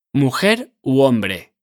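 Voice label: "mujer u hombre", Spanish; kobieta lub mężczyzna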